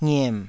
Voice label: "ngiem3", taiwanese syllables

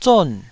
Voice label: "zon4", taiwanese

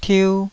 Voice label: "tiu2", taiwanese Syllables

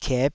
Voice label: "kiep5", taiwanese syllables

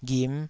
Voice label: "ghim5", Taiwanese syllable

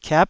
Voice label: "kiap9", taiwanese syllables

